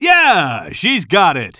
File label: commentator